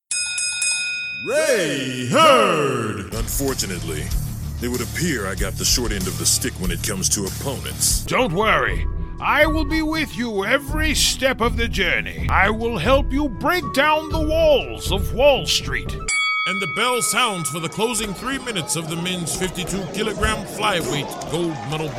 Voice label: Character